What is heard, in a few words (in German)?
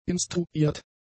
"instruiert", German; adjectives